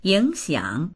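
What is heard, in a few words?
"ying3xiang3", Mandarin Chinese; 影响; 影響する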